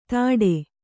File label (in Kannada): "ಧಡೆ", Kannada